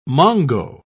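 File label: "mongo", English, British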